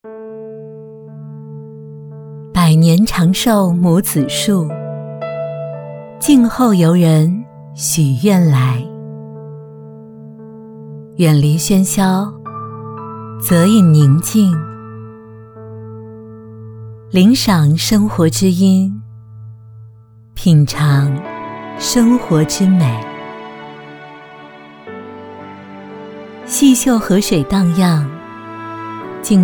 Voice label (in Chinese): 女 纪录片讲述 温柔; 卧龙山